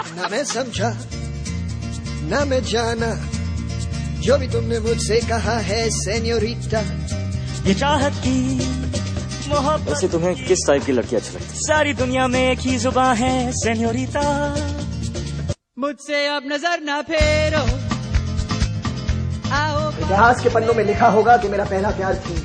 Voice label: Hrithik Dialogue

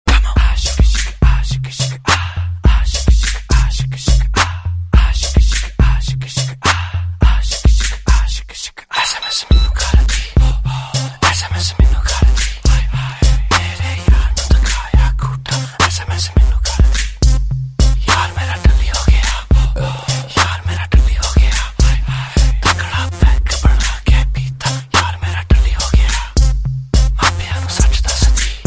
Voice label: Punjabi Whisper

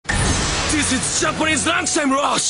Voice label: Speaking Eng; Kagami

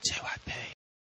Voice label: Whisper; Jyp

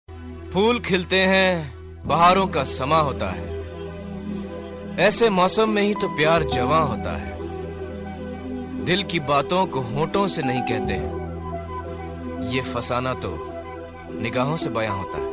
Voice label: Romantic Shayari

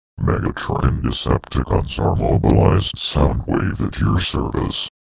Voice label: Roboric Voice

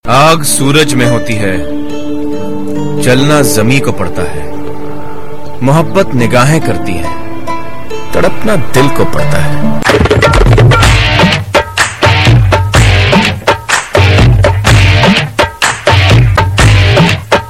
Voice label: Romantic Shayari

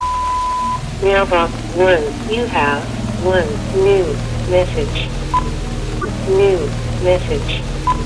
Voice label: Voice Msg

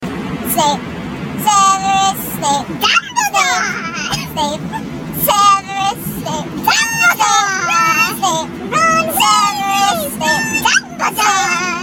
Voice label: Chipmunk

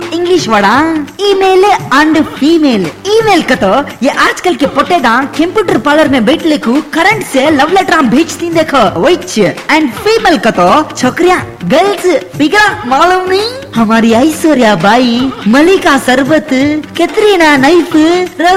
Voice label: Female; Sultan

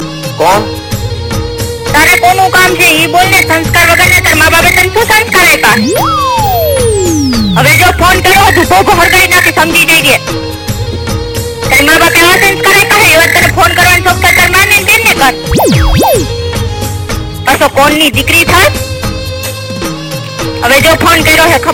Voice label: Gujju Prank Call

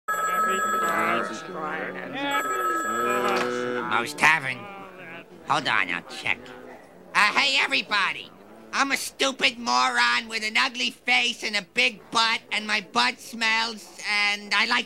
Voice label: prank call

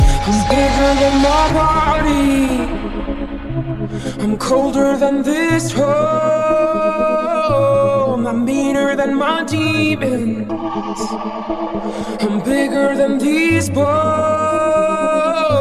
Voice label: male

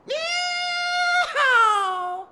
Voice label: Yeehaw Cowboy

